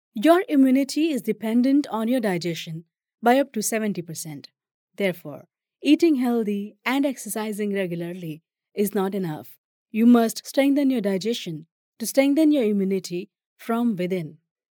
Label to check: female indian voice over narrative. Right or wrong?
Right